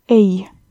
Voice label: no, estonian